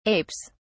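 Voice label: "apes", British English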